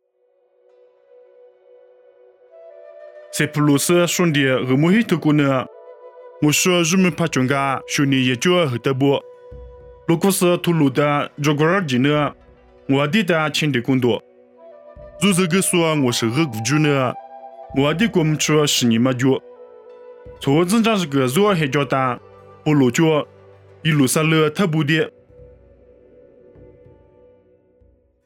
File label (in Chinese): 凉山彝语; 地中海推罗